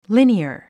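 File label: "linear", English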